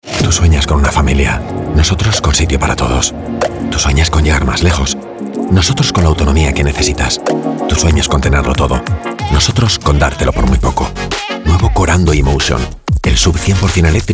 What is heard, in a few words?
RADIO; SSANGYONG KORANDO EMOTION